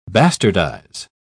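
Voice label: "bastardise", British English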